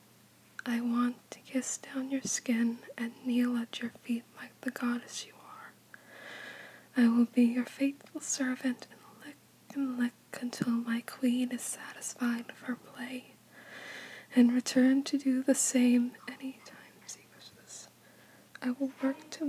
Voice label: [F4F] [Whispering][Almost Crying]; Praise; Body Worship

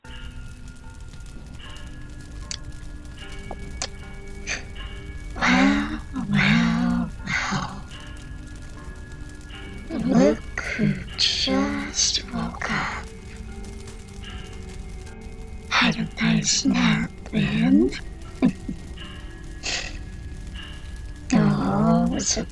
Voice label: whispers

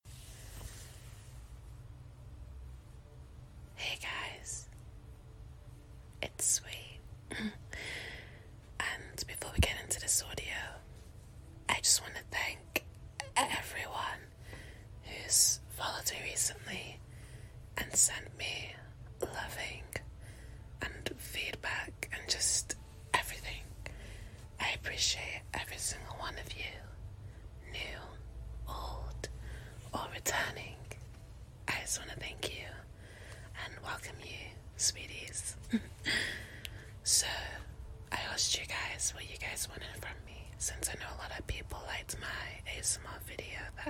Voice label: British Accent, ASMR, Sleep help; [SFW]; I'm here and I am with you; [insomnia help]; [relax]; [soft SFX]; [instructing you]

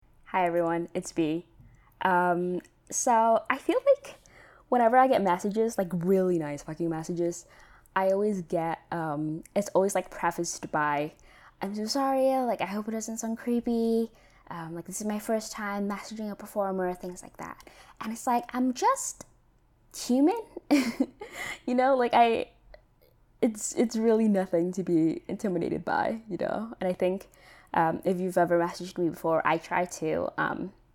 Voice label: Reads Mean Comment with Calming Spa Ambience

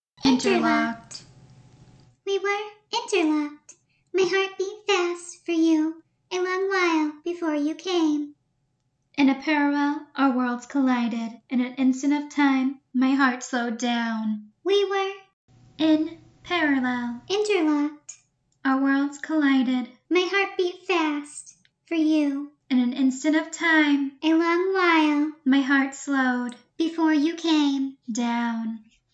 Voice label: [FF4A] [poetry][short][romantic]

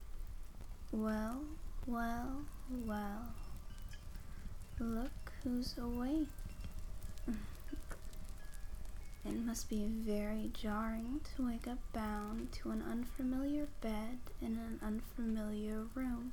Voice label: Soundscape Version; The Witch's House

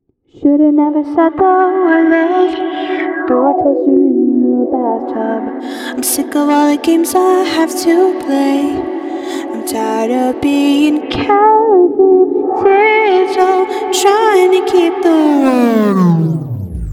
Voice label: Soap Rough Draft (playing with effects)

